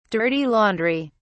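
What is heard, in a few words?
"dirty laundry", British English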